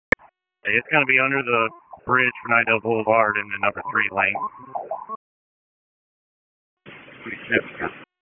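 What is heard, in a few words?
Public Safety Tactical, p25; wake p25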